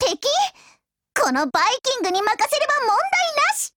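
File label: GO ATTACK, Japanese; MP446 Mod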